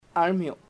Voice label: shoulders, chamacoco